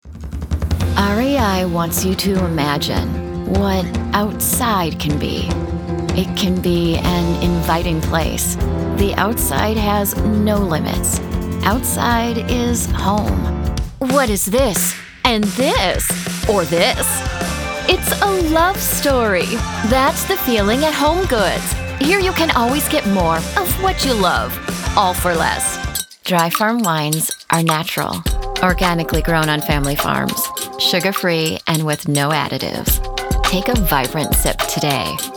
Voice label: Commercial